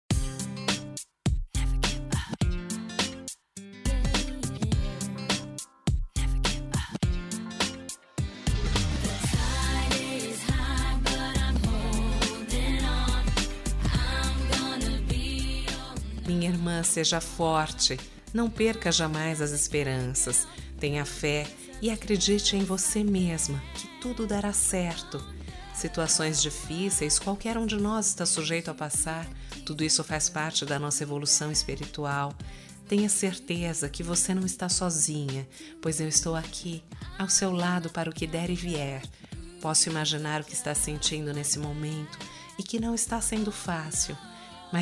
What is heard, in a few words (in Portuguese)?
OTIMISMO IRMA F, telemensagem